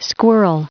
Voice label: "squirrel" en-us recite